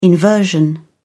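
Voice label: "inversion", British English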